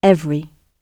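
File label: "every", British English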